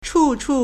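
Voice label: "chúchú", Chinese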